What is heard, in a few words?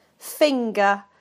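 "finger", British English; PictureDictionary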